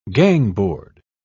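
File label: "gangboard", English, British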